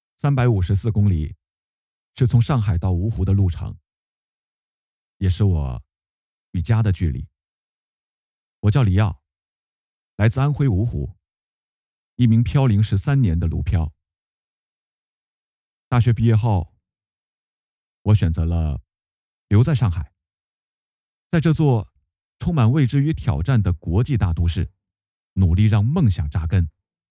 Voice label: 自白配音片段

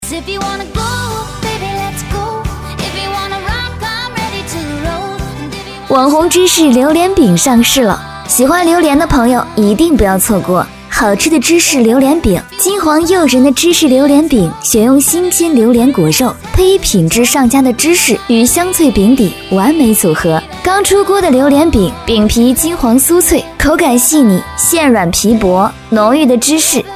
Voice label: 广告榴莲饼

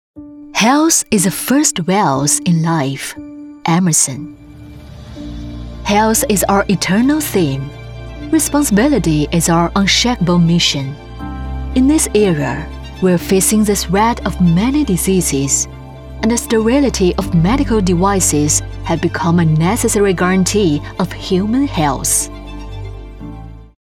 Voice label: 英文宣传片健康医学

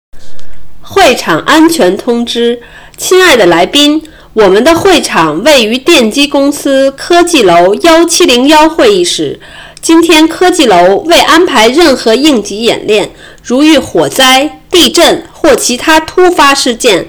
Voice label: 会场 安全须知 试音

